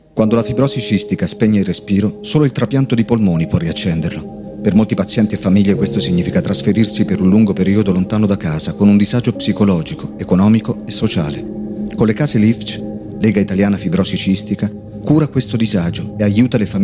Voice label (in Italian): SPOT RADIO